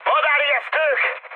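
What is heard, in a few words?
Hungarian enemy detected mood high, speech; War Thunder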